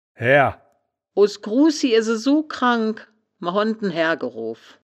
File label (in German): "haehr", wittlich; das wittlicher woerterbuch; woerterbuch vertonung